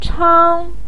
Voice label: "chang1", Chinese pinyin voice

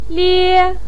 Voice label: "lie1", pinyin

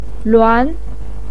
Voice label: "luan2", Chinese pinyin voice

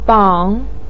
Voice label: "bang3", Chinese pinyin voice

